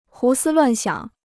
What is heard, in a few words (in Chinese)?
"胡思乱想", Chinese